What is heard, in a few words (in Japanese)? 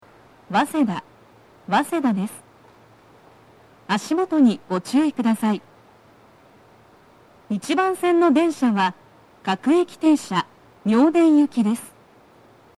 到着放送, 各駅停車 妙典行き, 女声, ※音声は音割れしています。; 1番線 西船橋・東葉勝田台・津田沼方面; 足元注意喚起放送が付帯されており